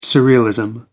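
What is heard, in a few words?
"surrealism", British English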